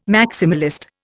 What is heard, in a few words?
"maximalist", Indian